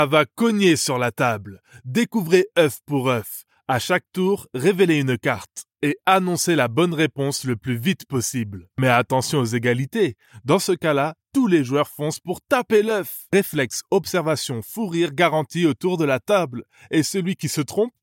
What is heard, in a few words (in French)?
Dynamique, voix off masculine; publicite